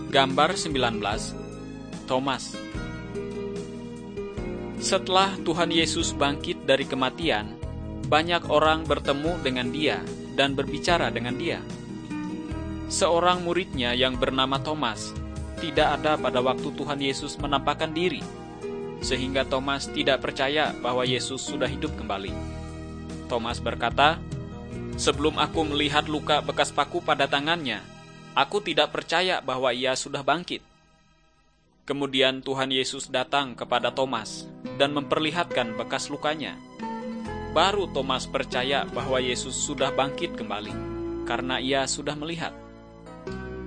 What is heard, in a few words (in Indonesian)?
kabar baik good news bahasa indonesia